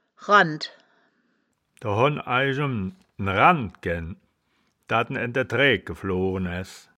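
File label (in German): "rannd", Wittlicher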